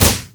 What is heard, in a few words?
"oh", basicattack; LoL; lulu